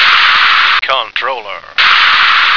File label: "control", American